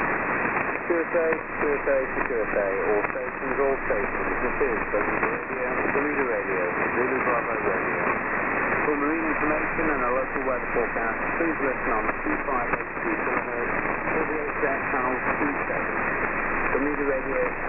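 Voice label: radio, 2182kHz, Marine Audio HF; Bermuda R. ZBR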